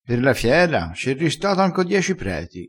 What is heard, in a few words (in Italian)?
"rista", crespinese